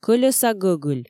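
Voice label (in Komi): "кӧлеса гӧгыль", Komi, female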